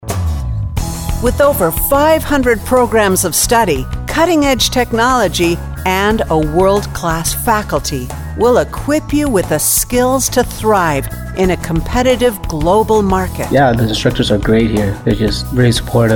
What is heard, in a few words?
Education Bold honest Upbeat high tone, Commercial Voiceover